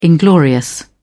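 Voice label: "inglorious", British English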